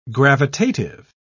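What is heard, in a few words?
"gravitative", British English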